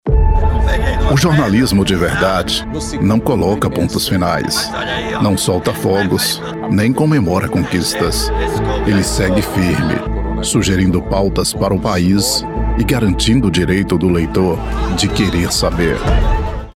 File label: portuguese brazilian tv shows